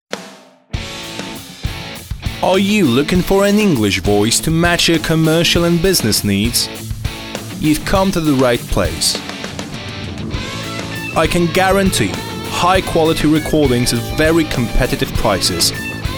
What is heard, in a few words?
british